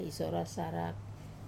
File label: "isora sarak", Im wearing a bracelet, Wamesa